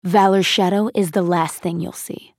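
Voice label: taunt, English; Quinn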